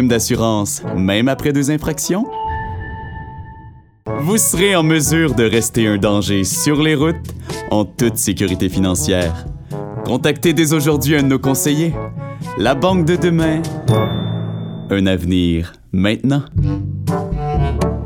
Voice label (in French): pub assurances annonceur amical avec sourire comedie jeune adulte